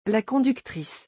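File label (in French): "la conductrice", French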